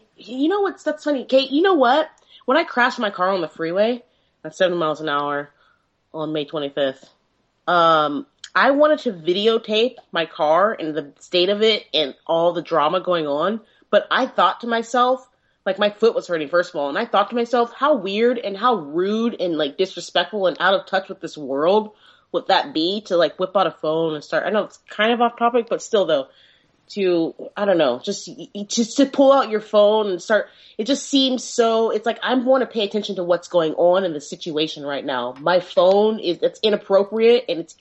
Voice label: Talkbox; Doubtful Orlando Shooting Witness